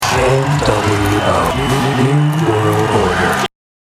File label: announcer; nwo